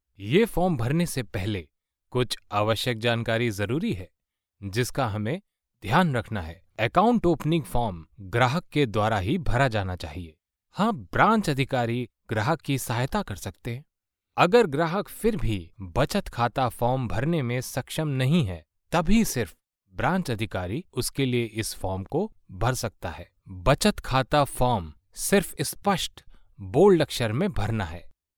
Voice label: male hindi voice over talent narrative

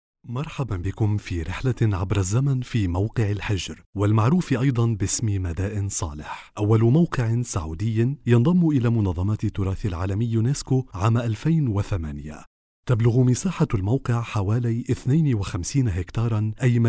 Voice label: male arabic voice over narrative

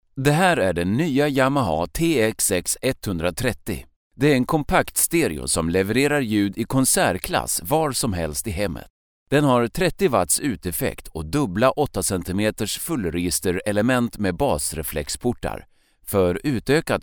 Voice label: male swedish voice over talent narrative

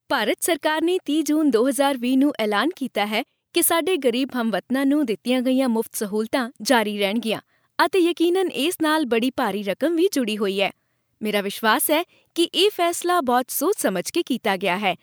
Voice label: female punjabi voice over narrative

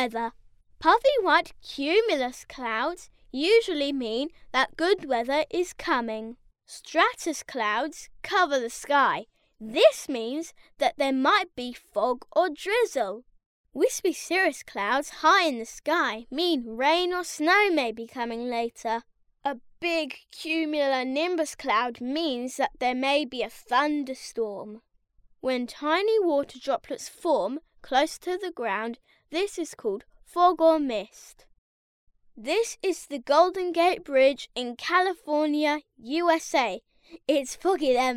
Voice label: boy british voice over narrative; english british

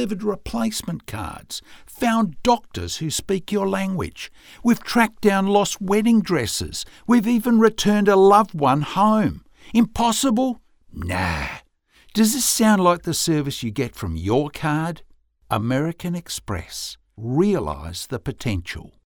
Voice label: male australian voice over sales

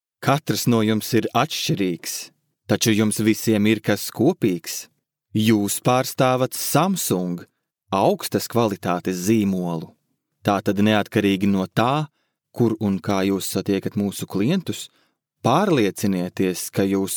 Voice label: male latvian voice over talent sales

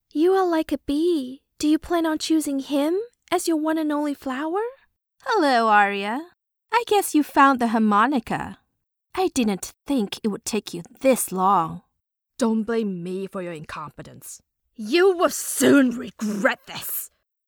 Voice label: female chinese voice over english

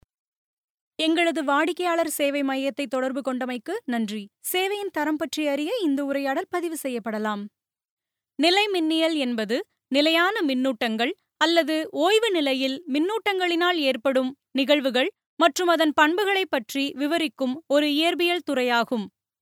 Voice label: female tamil voice over narrative

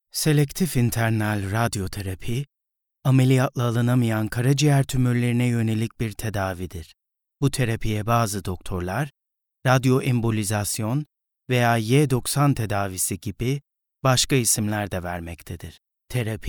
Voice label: male turkish voice over talent narrative

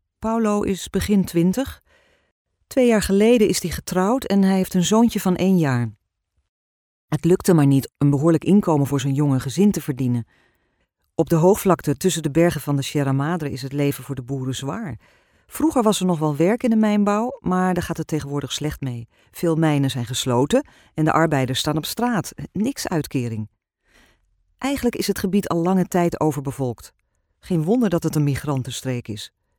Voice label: female dutch voice over character

